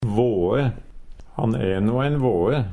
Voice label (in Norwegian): vae, Numedalsmål; Substantiv hankjønn